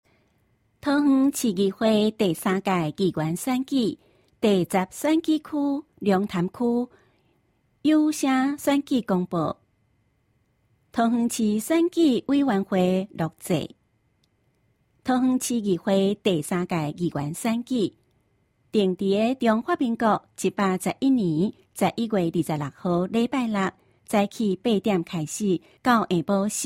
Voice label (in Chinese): 前言, 台語; 有聲公報; 市議員